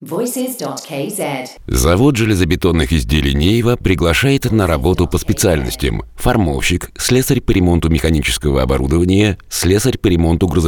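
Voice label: russian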